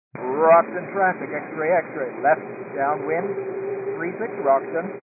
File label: 122 725MHz, scanner radio